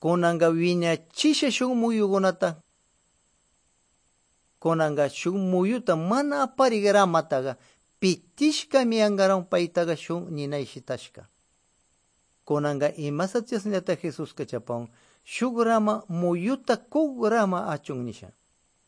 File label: good news quichua de pastaza